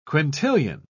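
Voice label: "quintillion", English, uk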